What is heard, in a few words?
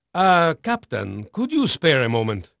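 Spanish male, voice; Pirates Of The Caribbean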